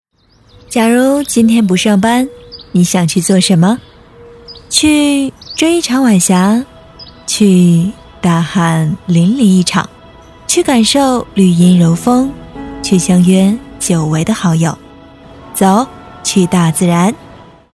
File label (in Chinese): 女 旁白; 天府九里